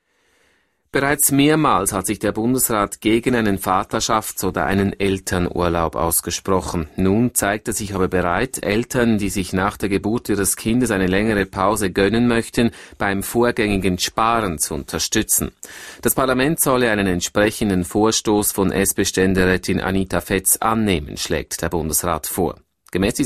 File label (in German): Nachrichten; DRS1 19h; Vaterschaftsurlaub